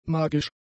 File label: "magisch", German; adjectives